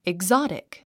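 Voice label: "exotic", English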